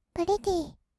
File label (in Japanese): プリティー, kawaii voice; ASMR; 色々なワンショット